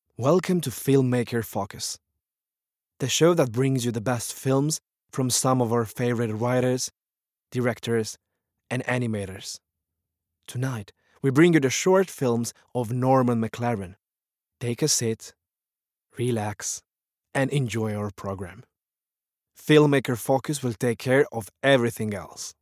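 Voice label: Romanian male accents American English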